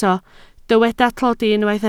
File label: "tlodi", Welsh